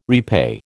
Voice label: "repay", American